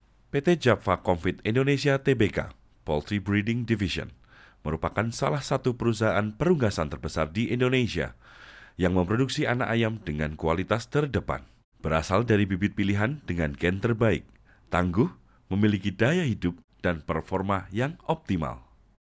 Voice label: Formal Indo, voiceover